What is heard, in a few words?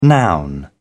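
"noun", British English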